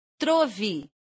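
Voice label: "trovi", Esperanto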